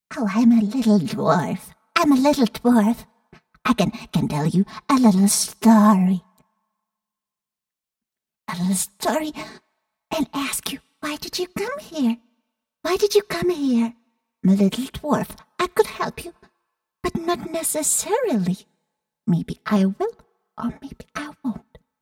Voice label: dwarf a vocal example for video games